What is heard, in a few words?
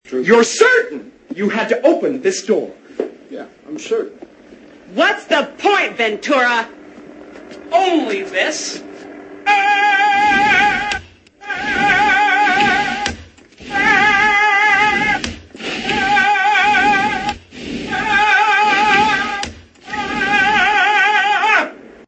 yell through door; Ace Ventura Pet Detective